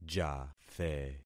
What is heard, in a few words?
"jathe", concat syllables; Affixing experiment